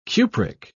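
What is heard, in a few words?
"cupric", British English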